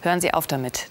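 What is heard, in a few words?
Hören Sie auf damit Stop That Female Voice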